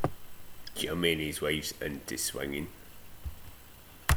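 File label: "intae", slang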